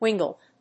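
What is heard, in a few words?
"WINGLET", English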